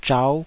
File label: "zhau5", Taiwanese syllable